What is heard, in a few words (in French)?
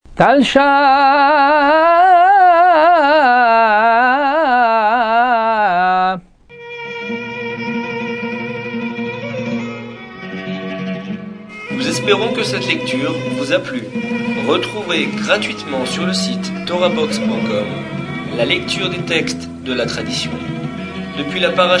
apprentissage taamim selon le rite constantinois